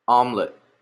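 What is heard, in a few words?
"omelette", Canadian English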